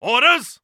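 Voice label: British Neutral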